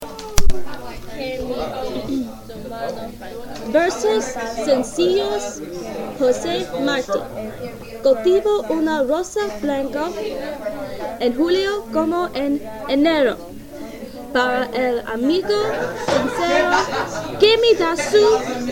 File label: spanish poem reading